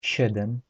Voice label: polish seven, polish